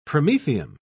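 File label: "promethium", British English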